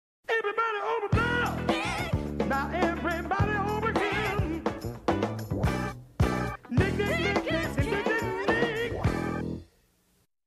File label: Commercial; Nickelodeon Time Capsule; clarissa